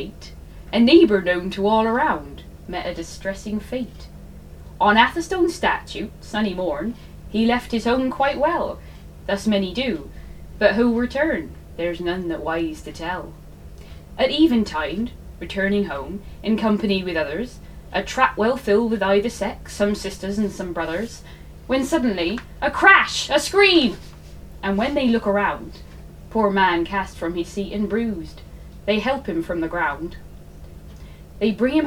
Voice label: Warning Voice